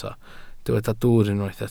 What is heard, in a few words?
"dwwr", Welsh